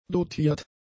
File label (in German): "dotiert", German; adjectives